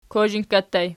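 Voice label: "kozhinkka" ergative plural, Archi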